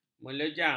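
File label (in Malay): "melejang", Malay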